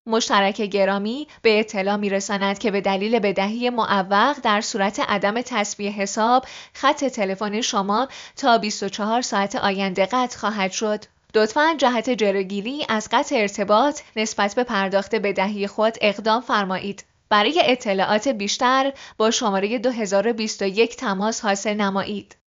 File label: IVR secretary; منشی تلفن گویا جهت بدهکاری